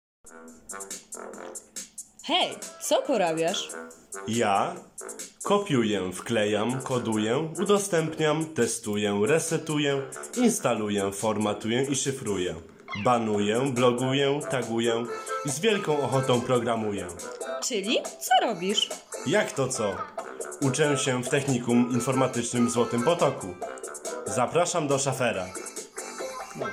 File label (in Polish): spot reklamowy INFORMATYK